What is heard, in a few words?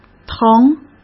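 "腾", Mandarin Chinese; chinese-flashcards; hsk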